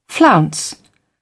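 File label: "flounce", British English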